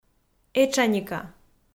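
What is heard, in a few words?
"этшаника", Komi, female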